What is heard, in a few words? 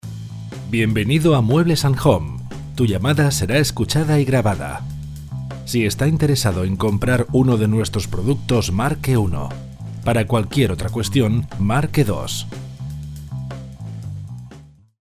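spanish male commercial; voice demos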